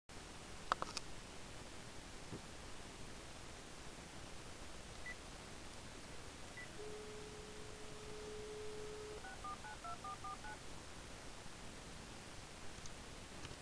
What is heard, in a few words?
voicemail